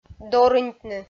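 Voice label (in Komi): "дорыньтны", Komi, female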